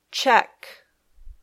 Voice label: "check", English